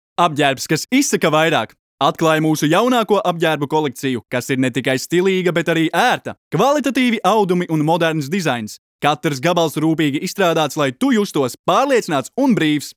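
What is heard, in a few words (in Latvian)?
Reklama Latvian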